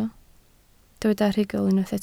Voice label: "rhugl", Welsh

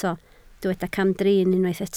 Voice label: "camdrin", Welsh

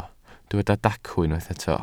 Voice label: "dacw", Welsh

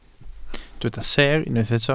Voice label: "seer", Welsh

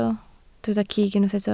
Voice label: "cig", Welsh

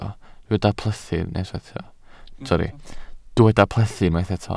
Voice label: "plethu", Welsh

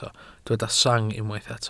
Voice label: "sang", Welsh

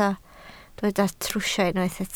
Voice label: "trwsio", Welsh

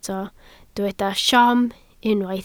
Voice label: "siom", Welsh

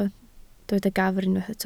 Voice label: "gafr", Welsh

